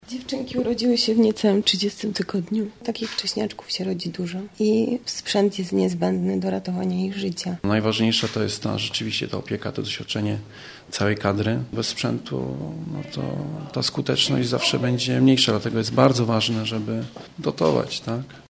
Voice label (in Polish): spot rodzice